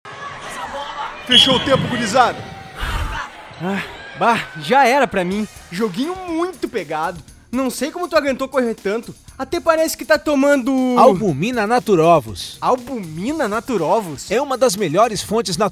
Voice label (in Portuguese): Spot